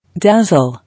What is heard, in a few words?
"Dazzle", English